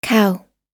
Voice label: "cow", pronunciation

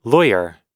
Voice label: "lawyer", us

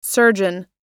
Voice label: "surgeon", us

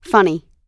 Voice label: "funny", pronunciation

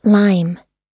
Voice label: "lime", us

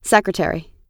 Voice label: "secretary" US

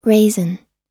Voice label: "raisin" US

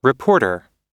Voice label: "reporter", us

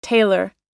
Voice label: "tailor", us

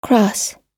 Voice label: "cross", pronunciation

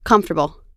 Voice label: "comfortable", pronunciation

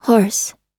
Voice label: "horse", pronunciation

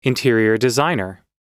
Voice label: "interior designer", us

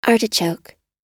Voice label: "artichoke", us

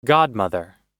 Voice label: "godmother", us

